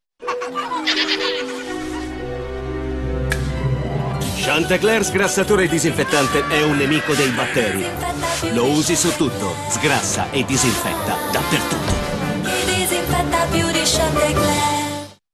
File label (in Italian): spot; Chante Clair sgrassatore spot 2014